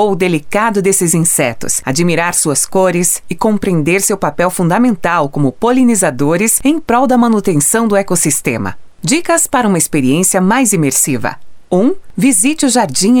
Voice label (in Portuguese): audio guia painel onde encontrar as borboletas voz feminina; exposicao casa das borboletas